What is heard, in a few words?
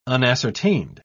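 "unascertained", British English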